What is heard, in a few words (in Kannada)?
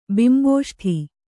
"ಬಿಂಬೋಷ್ಠೆ", Kannada